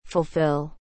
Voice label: "fulfill", english